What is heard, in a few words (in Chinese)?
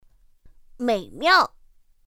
"měimiào", pinyin; pinyin2; pinyinji